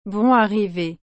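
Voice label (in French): "Bon arrivée", French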